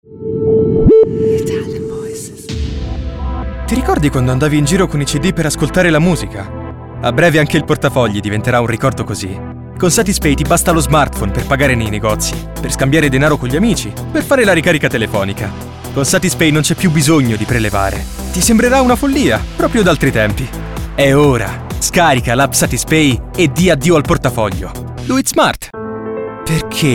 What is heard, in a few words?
ITALIAN VOICES Friendly Straight, male voices